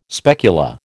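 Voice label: "specula", American English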